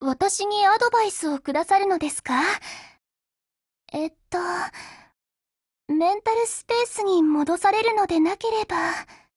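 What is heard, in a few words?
HELLO Japanese, Voice; GF Alarm; G36CMod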